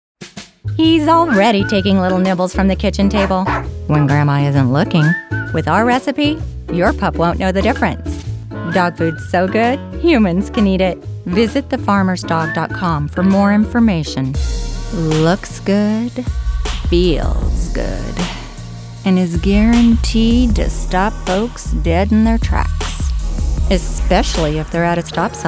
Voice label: Commercial